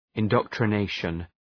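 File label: "indoctrination", English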